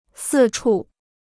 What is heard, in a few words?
"四处", Chinese